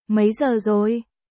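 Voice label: Vietnamese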